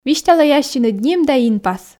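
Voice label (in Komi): висьталӧй ассьыныд ним да инпас, Komi, female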